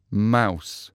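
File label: "mouse", English; animals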